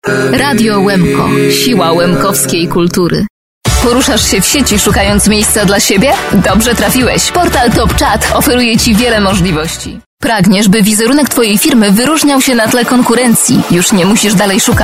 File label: voiceover PL